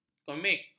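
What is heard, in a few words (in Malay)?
"komik", Malay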